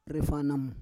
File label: "refanam", Roon